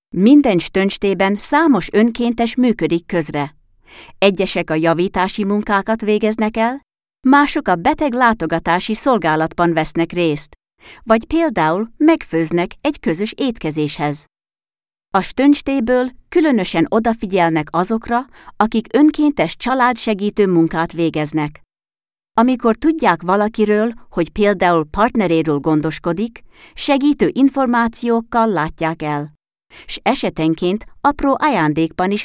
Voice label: hungarian

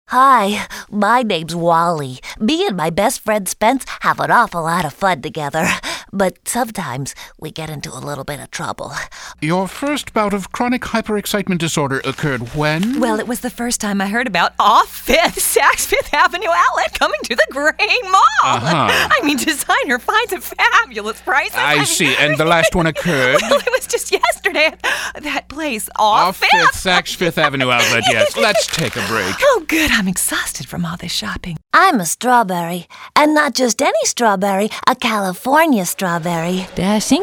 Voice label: female voice talent

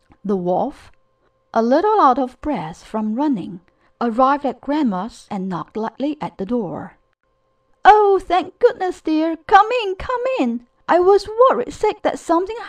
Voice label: Asian Accent, Female voice overs; foreign accent voiceovers

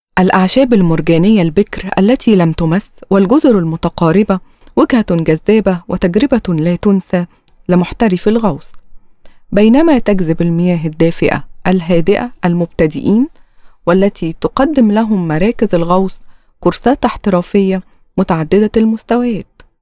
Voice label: Arabic

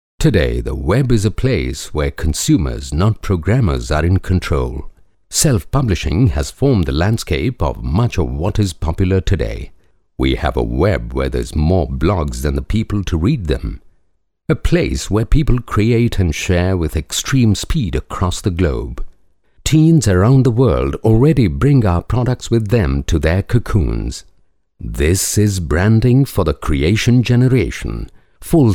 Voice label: Indian Accent, Male voice overs; foreign accent voiceovers

